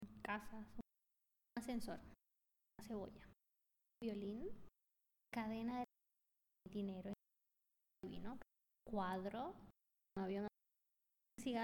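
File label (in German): Zusammengefugte Worter Schnell Spanisch, female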